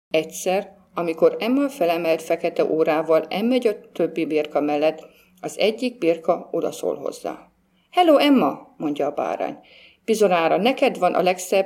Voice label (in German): ungarisch